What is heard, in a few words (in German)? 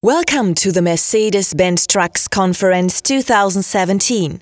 sprecherin; daimler